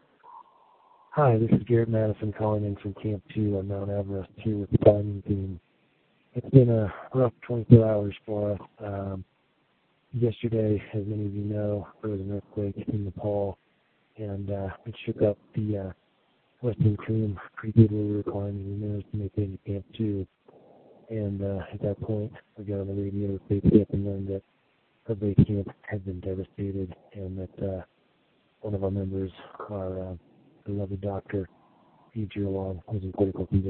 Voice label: voicemail